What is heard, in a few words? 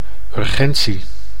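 "urgentie", Dutch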